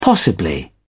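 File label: "possibly", British English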